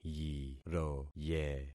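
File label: "yiroye", concatenated syllables; Affixing experiment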